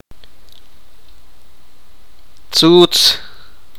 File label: sipakapense; sharp